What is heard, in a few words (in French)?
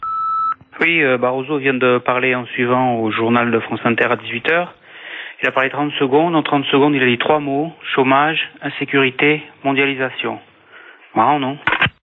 repondeur; Discours de Barroso